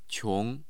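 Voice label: "qiong2", Mandarin Chinese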